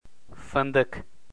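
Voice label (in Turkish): "findik", Turkish, male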